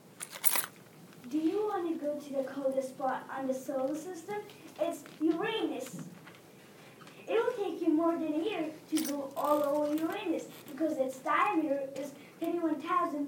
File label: commercial; nshu s galaxy tours